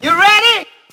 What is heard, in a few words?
"you ready" SCRATCH; Voice; questions